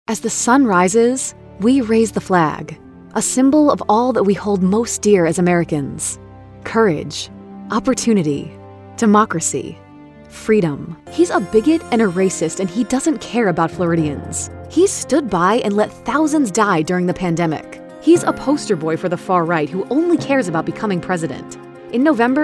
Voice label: Democrat Voiceover; Political DEMOCRAT Voices English